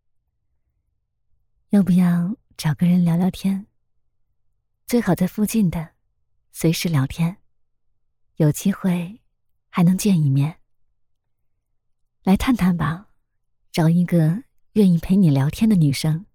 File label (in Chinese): 深情温暖; 探探